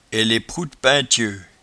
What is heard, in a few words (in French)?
"eleproudpaintieut", patois jura